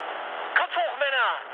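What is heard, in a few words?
German near waypoint mood med, speech; War Thunder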